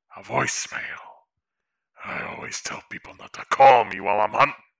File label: voicemail